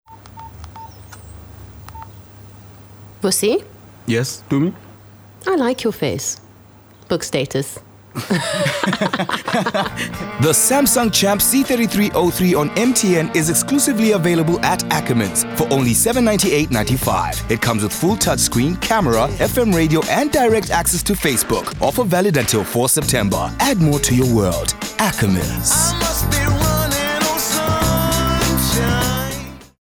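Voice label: english South African female commercial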